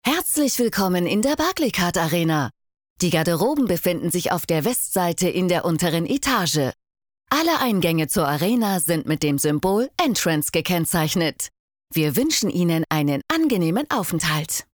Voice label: TONLABOR OFF ANSAGE

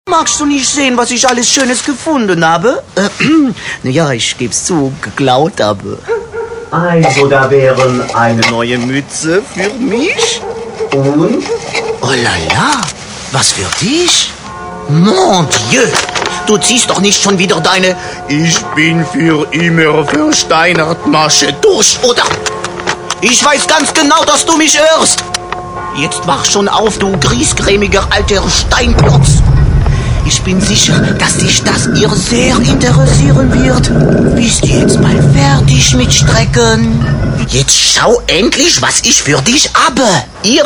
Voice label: Special Animation franzoesicher Akzent putzig kindlich als Spatz; Bon Voyage

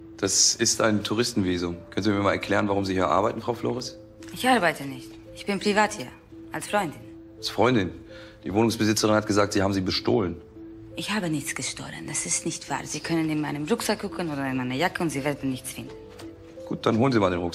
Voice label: Der gute Bulle mit spanischer Akzent; LOFT VOICES

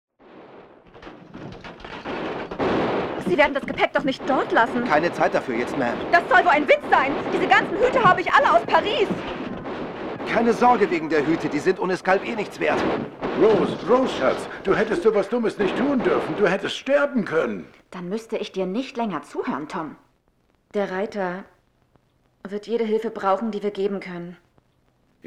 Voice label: westernserie synchron; laramie

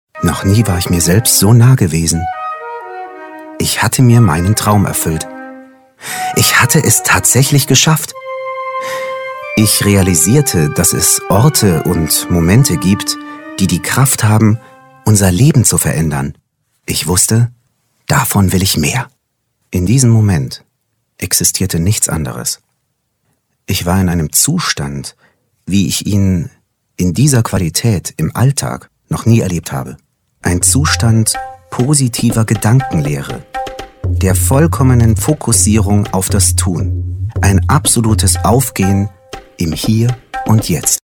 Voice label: Hoerbuch sanft staunend weich warm ruhig sonor meditativ

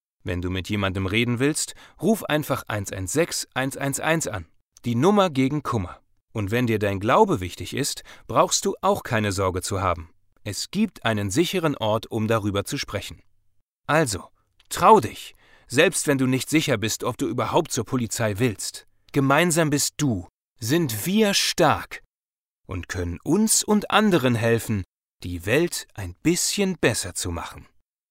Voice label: Erklaerfilm

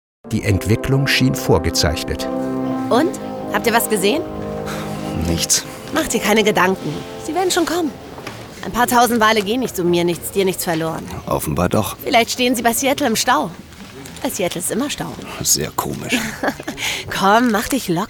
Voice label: hoerspiel; LOFT VOICES

